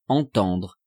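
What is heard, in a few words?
"entendre", French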